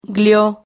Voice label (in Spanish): "glio", Silabas